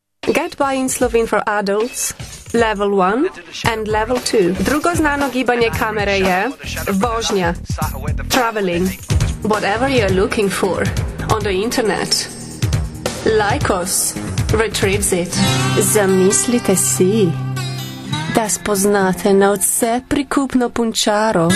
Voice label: female slovenian english with slovenian accent and slovenian; devine voices